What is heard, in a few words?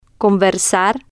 "conversar", Spanish